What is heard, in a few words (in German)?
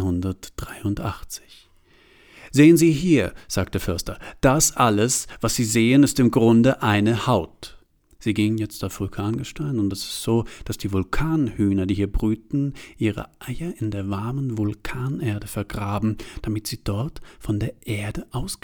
kommentar; worte ueber die welt; Einsendung